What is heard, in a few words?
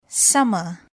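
"summer", English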